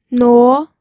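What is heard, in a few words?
"nuo", second tone, pinyin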